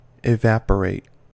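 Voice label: "evaporate", US English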